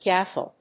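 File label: "gaffle", English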